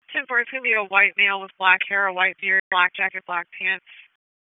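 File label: Police Southeast District Dispatch, p25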